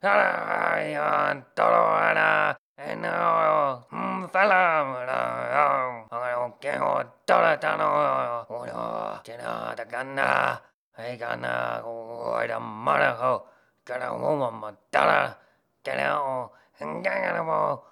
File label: fly mutter loop; Chef